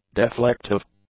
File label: "deflective", english; adjectives